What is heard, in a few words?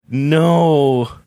drawn out no